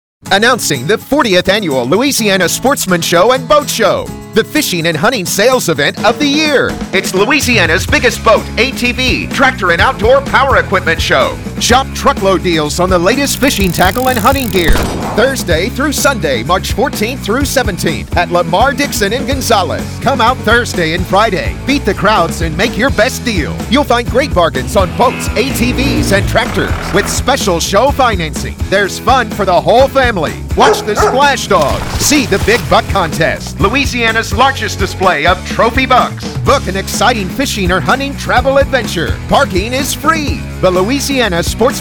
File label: radio spot